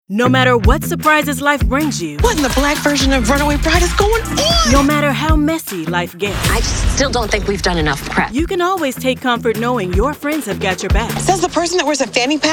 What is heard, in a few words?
women promos; A natural storyteller with a warm energy that is engaging and authentic.